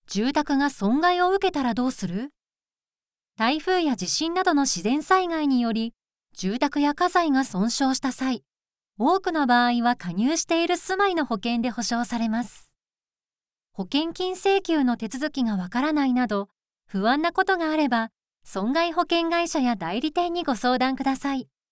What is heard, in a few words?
female